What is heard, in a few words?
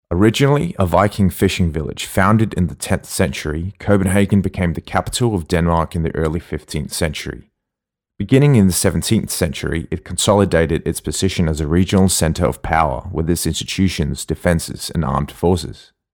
Aus accent; Demo reel